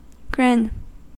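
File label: "grin", US English; pronunciations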